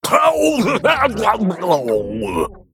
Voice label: Male Ooze Death